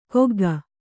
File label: "ಕೊಗ್ಗ", kannada